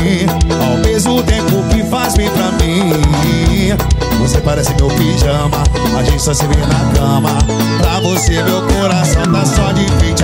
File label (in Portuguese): locutor a voz magnifica